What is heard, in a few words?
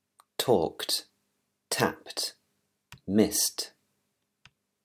english pronunciation rules